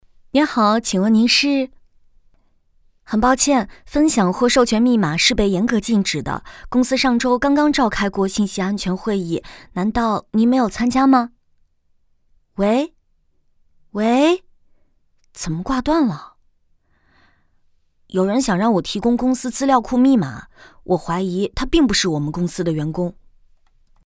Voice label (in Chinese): 网银安全 角色对话 女声试音; 网银安全5篇